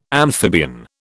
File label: "amphibian", British